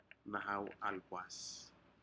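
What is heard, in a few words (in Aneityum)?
"nahau alpas", Aneityum word, turtles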